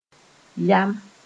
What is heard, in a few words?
"yam", Moore